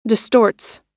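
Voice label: "distorts", American English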